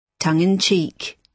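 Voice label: "tongue in cheek", British English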